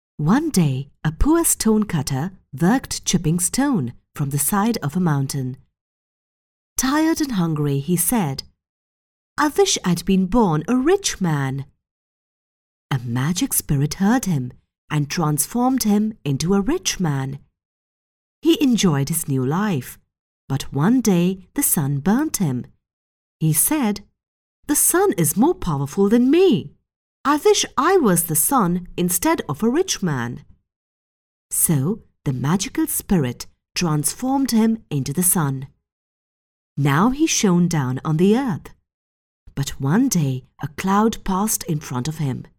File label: female Indian Hindi English with slight Indian accent narration